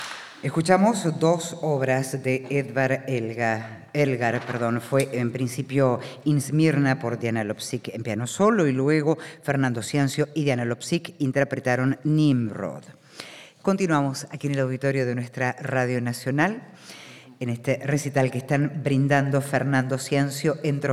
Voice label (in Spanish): locutora